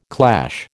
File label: "clash", American